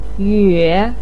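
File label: "yue", Mandarin pinyin, third tone; 哕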